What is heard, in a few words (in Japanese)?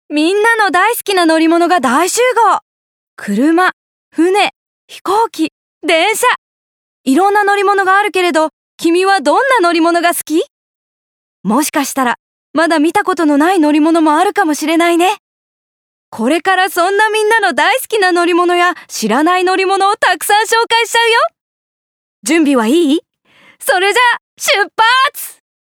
子供向け番組